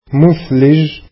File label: snowy, arabic